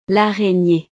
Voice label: "l araignee", frances

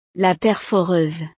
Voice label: "la perforeuse", frances; utiles escolares

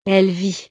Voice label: "elle vit", frances

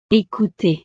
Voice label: "ecouter", frances

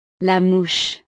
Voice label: "la mouche", frances

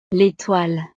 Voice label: "l etoile", frances; navidad

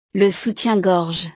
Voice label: "le soutien-gorge", frances; ropa

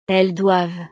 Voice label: "elles doivent", frances

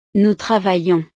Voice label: "nous travaillons", frances

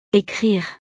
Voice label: "ecrire", frances

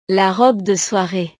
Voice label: "la robe de soiree", frances; ropa

